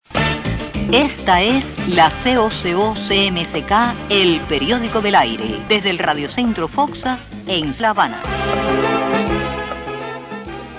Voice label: narracion oral

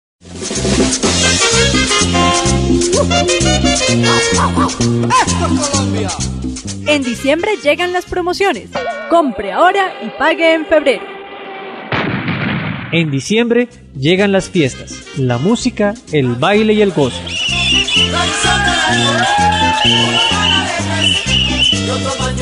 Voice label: cuña navidad; semilla joven

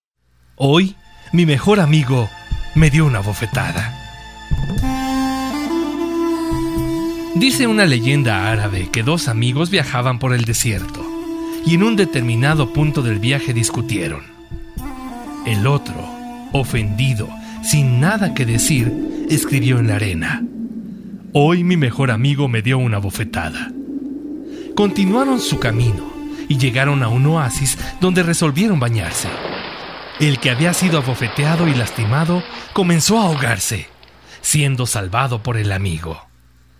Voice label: locutor emotivo; mi mejor amigo

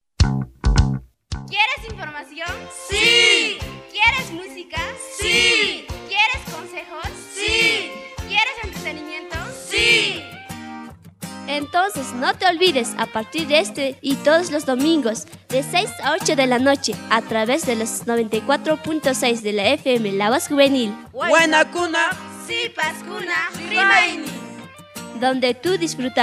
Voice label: spot promocional rock; la voz juvenil

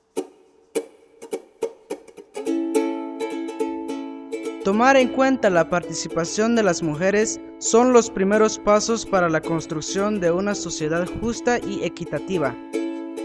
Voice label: mensaje concientizadora

